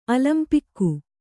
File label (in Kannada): "ಅಲಂಪಿಕ್ಕು", kannada